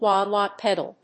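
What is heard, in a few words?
"wah-wah pedal", English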